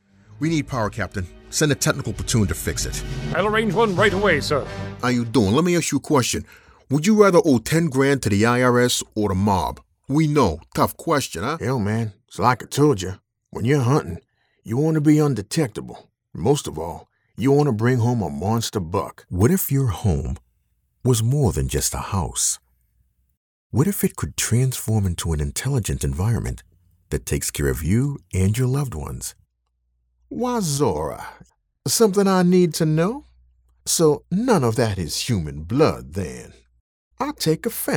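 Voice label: Character Reel, Voice Actor; non-union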